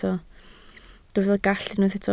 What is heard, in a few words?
"gallt", Welsh